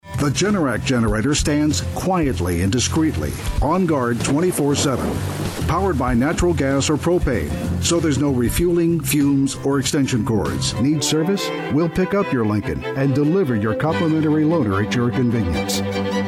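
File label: Commercial Male, Relaxed, Convincing, Mature; TV and Radio, eLearning, Narration, Corporate, Documentary, Medical Narration, Phone Hold/IVR; Commercial Voiceover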